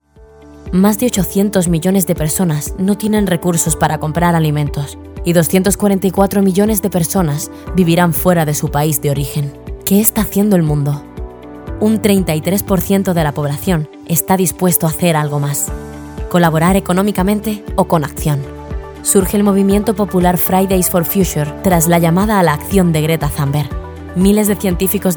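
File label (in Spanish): Corporativa, español castellano; Genérica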